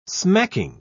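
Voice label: "smacking", English, British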